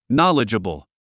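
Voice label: "knowledgeable", English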